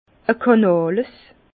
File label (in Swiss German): "kanales", Bas Rhin; vin; eau de canal